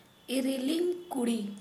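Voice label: sister in law, Santali, female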